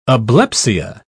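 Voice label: "ablepsia", British English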